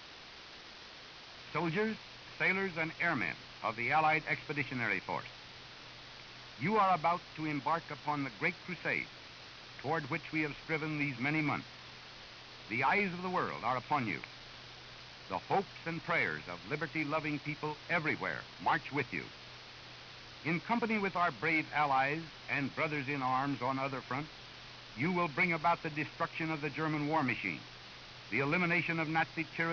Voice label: ww2 speech